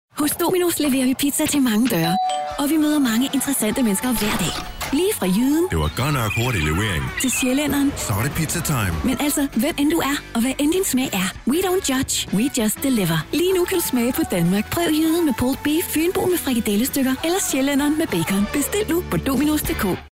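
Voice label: dk commercial, Danish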